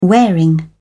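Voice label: "wearing", British English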